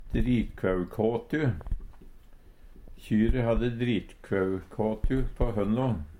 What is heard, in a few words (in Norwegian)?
"dritkvaukåtu", Numedalsmål; Eksempel på bruk Kjyre hadde dritkvaukåtu på hønno.; kule som ein har ytterst på hornet til kyr slik at dei ikkje kan skade nokon.